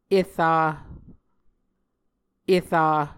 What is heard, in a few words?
"era", Muscogee